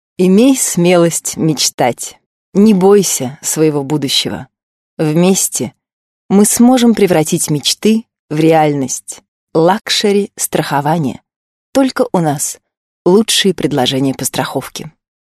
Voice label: russian female